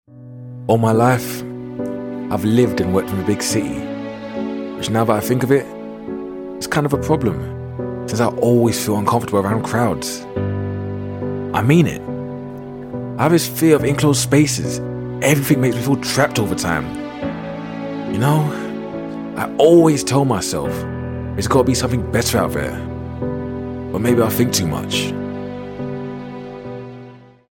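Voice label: British English, character; GoLocalise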